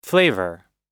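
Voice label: "flavor", English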